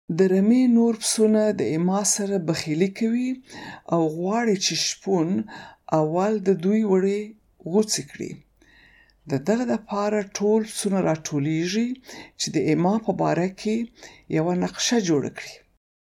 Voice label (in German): paschtu